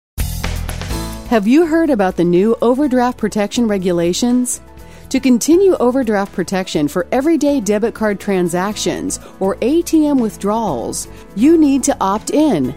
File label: On Hold message; Summit Community Bank